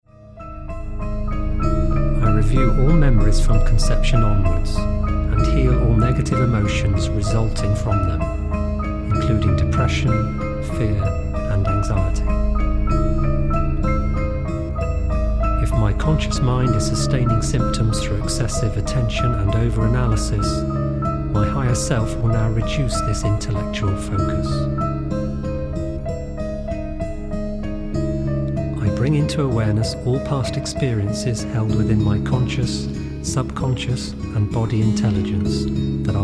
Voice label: narrated excerpt; Master Harmonic